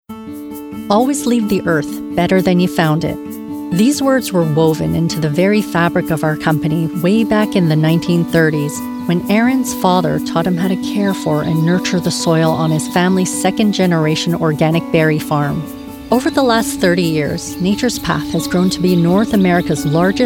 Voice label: EXPLAINER; NATURES PATH